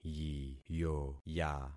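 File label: "yiyoya", concatenated syllables; Affixing experiment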